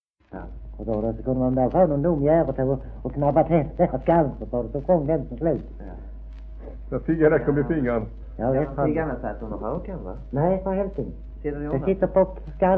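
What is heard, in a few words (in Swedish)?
Degeberga Skåne, svenska sagor dialekter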